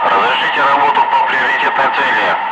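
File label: Russian speech, Ka-50 Wingman; DCS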